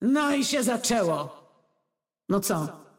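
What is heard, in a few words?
Polish; 索杰恩（守望先锋2）/终极技能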